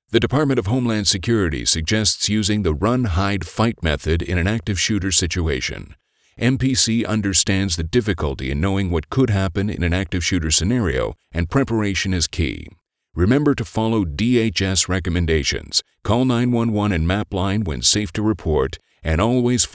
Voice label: e-Learning